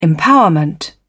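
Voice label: "empowerment", British English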